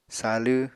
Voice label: "salw", Apatani